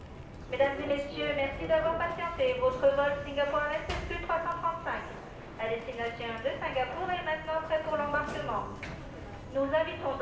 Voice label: airport announce